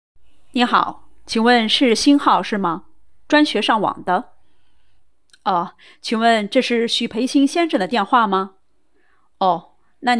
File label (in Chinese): 严厉女声试音